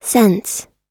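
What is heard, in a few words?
"sense", US English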